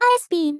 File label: "ispin", vocal; miku